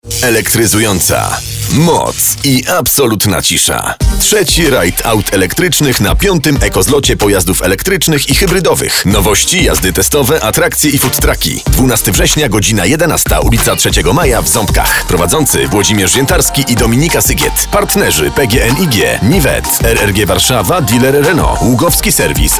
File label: spot radio; Ekozlot 2021